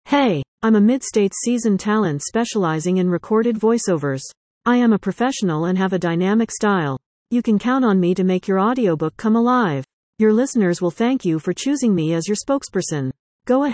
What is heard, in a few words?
lady, audiobooks